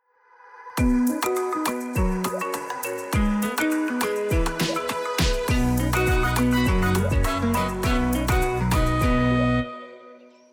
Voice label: Service Providers Emptying Setswana; Sanitation; Emptying and Transport Setswana Soundbites